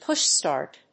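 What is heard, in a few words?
"push-start", English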